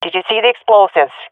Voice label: query bomb, swat fem; vo; Counter Strike Model Pack; CS:GO

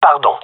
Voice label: sorry, male; vo

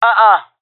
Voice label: negative, jungle fem epic; vo; agents; Source Model Packs; Counter Strike Model Pack; CS2

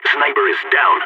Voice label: sniper killed, balkan; vo

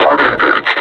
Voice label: announce attack, combine suppressor; Half Life Alyx

